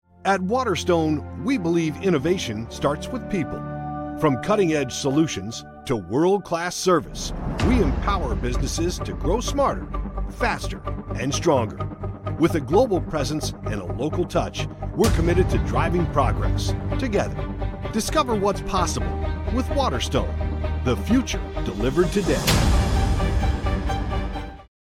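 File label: narration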